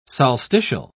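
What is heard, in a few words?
"solstitial", English, British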